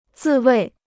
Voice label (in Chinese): "自卫", Chinese